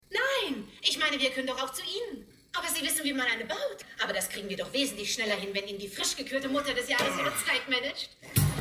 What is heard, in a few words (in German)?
Synchro Die Dinos sind los Kino